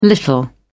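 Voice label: "little", British English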